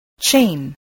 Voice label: "chain", English, voice